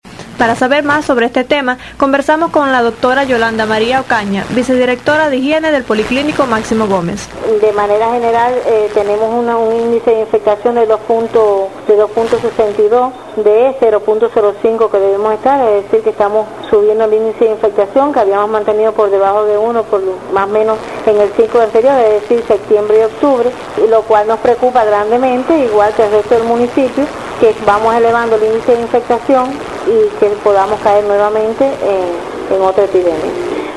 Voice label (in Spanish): Transmision de radio